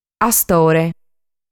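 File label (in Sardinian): "Astore", Sardinian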